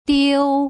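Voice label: "diu", Putonghua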